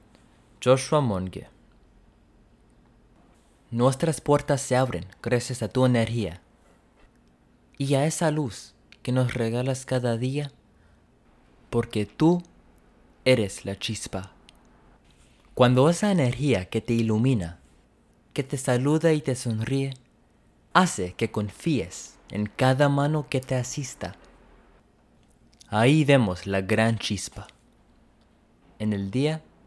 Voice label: SPANISH